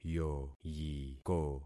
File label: "yoyiko", concat syllables; Affixing experiment